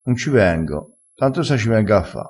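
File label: "a fa", Italian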